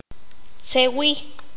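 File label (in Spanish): "reírse", Q'anjob'al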